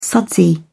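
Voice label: "sudsy", British English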